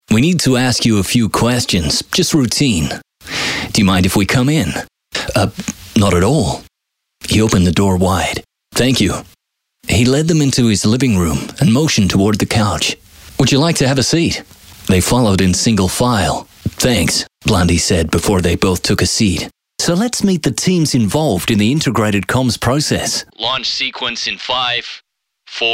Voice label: english australian audiobooks; australian voice actors